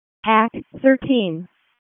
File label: WC EMS ALERT, p25; wake